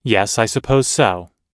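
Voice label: everyday agreeing, American English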